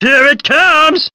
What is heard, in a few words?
here it comes cartoon